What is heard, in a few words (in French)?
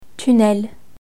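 "tunnel" French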